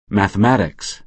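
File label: "mathematics", American English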